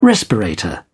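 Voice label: "respirator", British English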